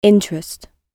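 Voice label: "interest", British English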